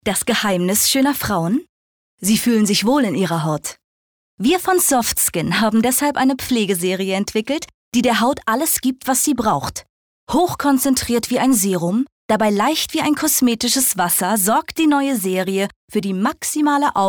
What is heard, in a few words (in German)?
Freundlich; SoftSkin